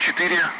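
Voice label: Speech Russian; Allied Flight; Digits; DCS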